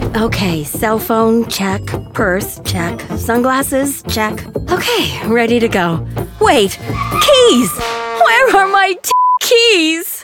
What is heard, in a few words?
explainers web videos; Lost Keys